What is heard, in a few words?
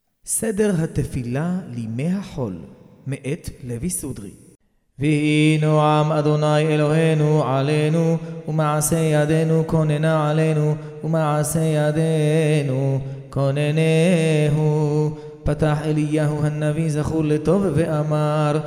recorded prayer weekday morocco